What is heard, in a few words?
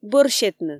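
Komi, female; бӧр сетны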